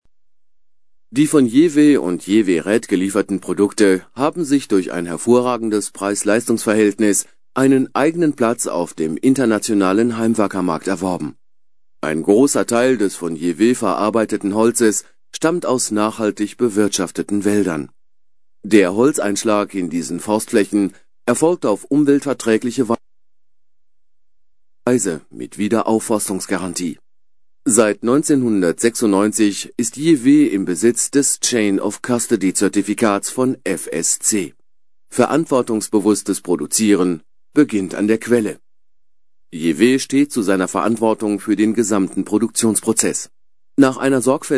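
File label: german male voice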